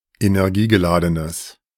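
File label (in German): "energiegeladenes", German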